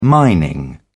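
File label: "mining", British English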